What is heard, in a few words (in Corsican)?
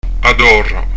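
"a torra", Corsican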